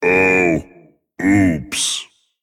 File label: Male Dragon Evil Emote Oops